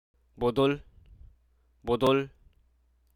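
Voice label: Hruso Aka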